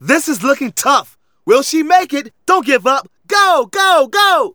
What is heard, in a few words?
Narration